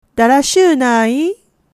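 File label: "darashii", Japanese dialect, woman; hogen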